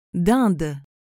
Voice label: "dinde", French